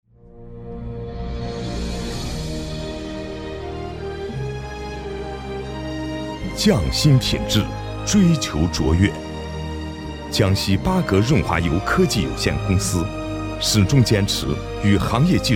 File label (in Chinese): 男 企业宣传 润滑油